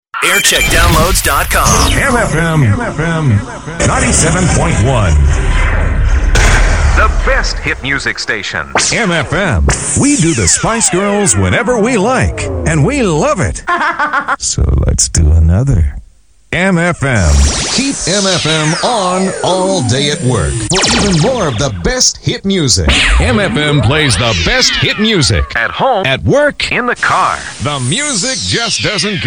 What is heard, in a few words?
imaging